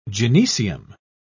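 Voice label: "gynoecium", British English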